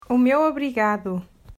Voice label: O Meu Obrigado, Portuguese

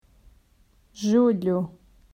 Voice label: "Jul", Portuguese

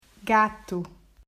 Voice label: "Gato", Portuguese

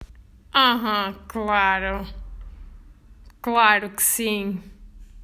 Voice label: Hm hm claro que sim, Portuguese

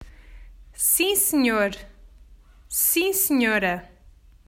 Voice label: "Sim senhora", Portuguese

